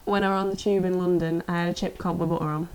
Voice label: Yorkshire tube london chip cob, accents of english